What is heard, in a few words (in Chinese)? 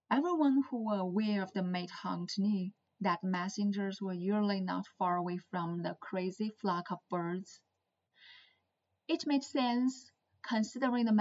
英文小说女播